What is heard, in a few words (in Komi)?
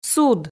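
"суд", Komi, female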